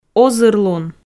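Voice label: "озырлун", Komi, female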